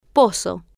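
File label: "pozo" Spanish, female, normal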